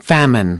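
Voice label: "famine", English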